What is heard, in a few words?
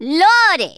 jump, Rasta